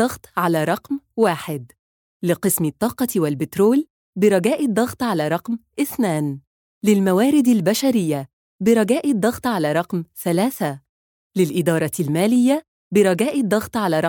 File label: IVR ARABIC ENGLISH; AIG